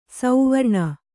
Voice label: "ಸುವರ್ಣ", Kannada